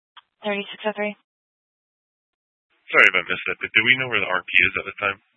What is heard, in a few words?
Police Department Dispatch; Grand Junction